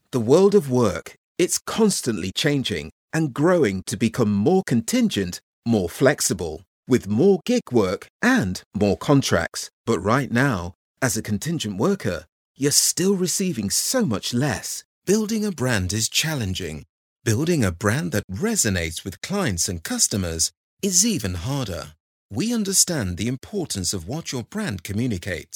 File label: UK Corporate, voiceover